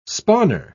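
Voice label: "spawner", British English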